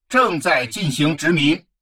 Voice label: advisor notification colonization progress, chinese; Stellaris